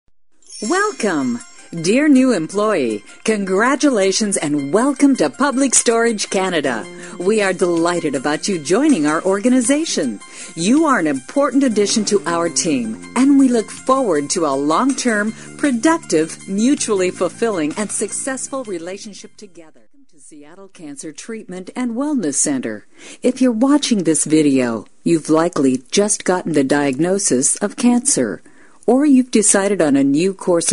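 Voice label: English American female voice Corporate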